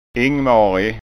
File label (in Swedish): "ingmarig", skanska